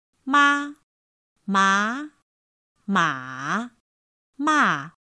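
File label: "mā má mǎ mà", Chinese; pinyin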